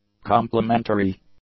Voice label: "complimentary", English; adjectives